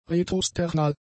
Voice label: "retrosternal", German; adjectives